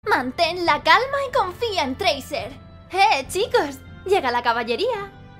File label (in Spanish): imitando voces, espanol de espana; overwatch